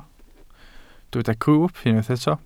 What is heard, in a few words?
"grwwp", Welsh